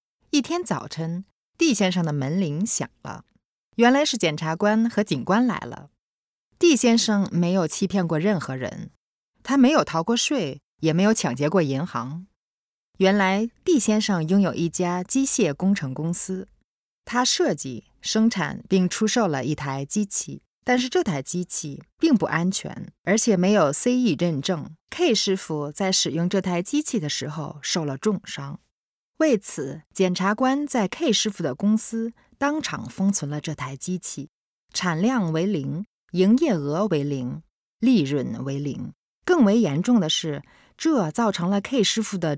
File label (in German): chinesisch safetyfilm; siemens